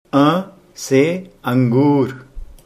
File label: "angur", Hindi